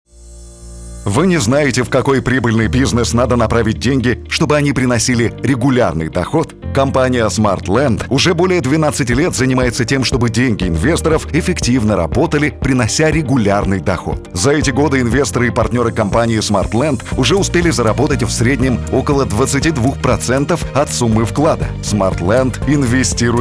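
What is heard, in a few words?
Russian, male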